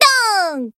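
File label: Voice attack, Japanese; rodeia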